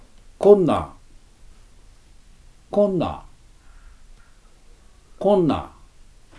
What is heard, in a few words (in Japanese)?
出水方言